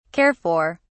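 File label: "care for", British English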